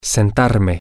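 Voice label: to sit myself, Spanish, male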